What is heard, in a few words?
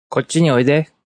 Japanese, Male; Cmere; Talking Sound Effects